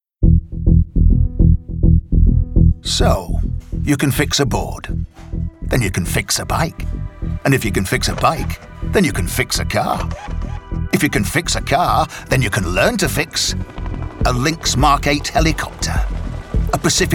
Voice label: male english northern accent royal navy engineers commercial